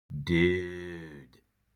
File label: dude surfer